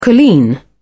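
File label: "colleen", British English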